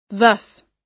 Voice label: "thus", us

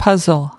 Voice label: "puzzle", us

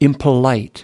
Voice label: "impolite", us

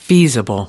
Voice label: "feasible", us

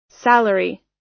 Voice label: "salary", us

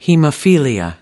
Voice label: "hemophilia", us

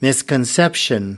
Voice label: "misconception", us

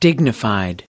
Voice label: dignified, us

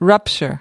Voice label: "rupture", us

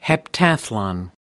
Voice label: "heptathlon", us

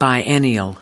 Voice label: "biennial", us

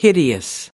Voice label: "hideous", us

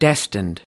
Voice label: destined, us

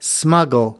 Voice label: "smuggle", us

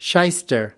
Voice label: "shyster", us

